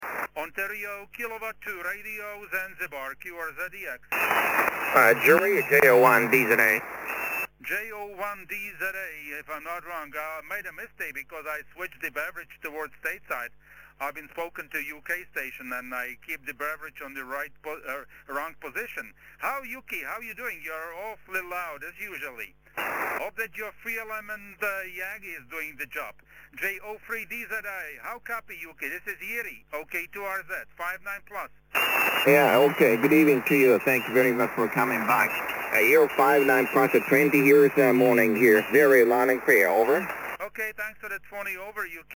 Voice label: 80m SSB